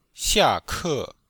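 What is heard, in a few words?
"xia4ke4", Chinese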